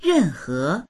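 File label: "ren4he2", Mandarin Chinese; 任何; いかなる、任意の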